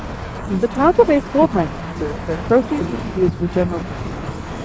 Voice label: CHIME simu, CDiffuse; CleanMel